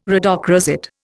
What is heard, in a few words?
"rhodochrosite", Indian